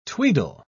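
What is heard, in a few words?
"tweedle", English, British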